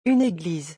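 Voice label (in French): "une eglise", French; vocabulaire; le lexique des vacances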